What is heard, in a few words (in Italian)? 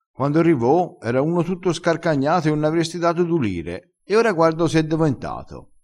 "scarcagnato", crespinese